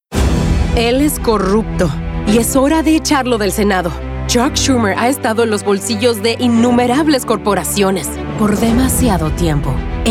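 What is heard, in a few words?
POLITICAL Spanish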